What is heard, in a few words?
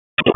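Police Southwest District Disp, radio; Raleigh; wake p25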